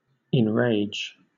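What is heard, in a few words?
"enrage", British English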